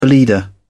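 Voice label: "bleeder", British English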